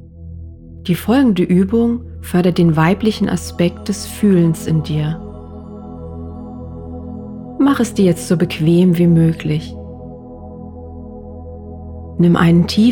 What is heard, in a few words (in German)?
Bodyscan-Meditation